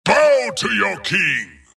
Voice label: wraith death; dota; skeleton king